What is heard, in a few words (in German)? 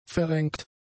"verrenkt", german; adjectives